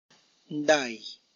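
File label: tlacochahuaya, Zapotec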